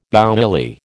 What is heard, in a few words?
"bouilli", American